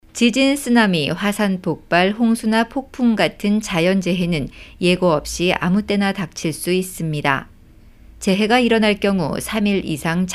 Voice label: Radio Korean